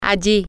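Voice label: "over around there", Spanish, female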